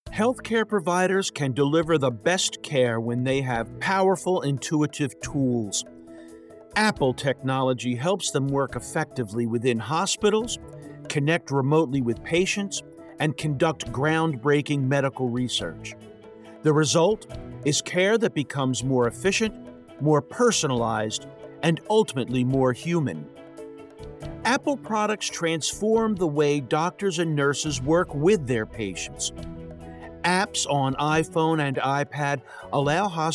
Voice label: Corporate Narration; Apple Health